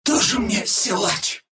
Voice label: death, ru; Dota 2

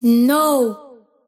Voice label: kid lose; invoker

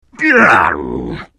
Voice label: anger; Lion

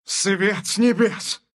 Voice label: mystic flare, ru; Dota 2

